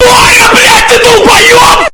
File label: very angry russian; meme